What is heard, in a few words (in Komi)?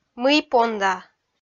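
"мый понда", Komi, female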